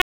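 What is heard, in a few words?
Raleigh Police North District Tactical; wake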